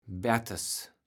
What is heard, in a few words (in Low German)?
"Bertes", kaevels platt